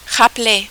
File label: "rappeler", French